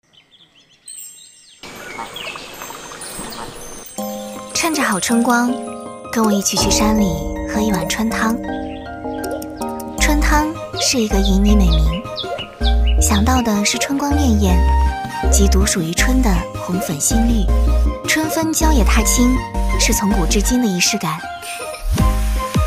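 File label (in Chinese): 女国大音-春分饮春汤-自然旁白-文旅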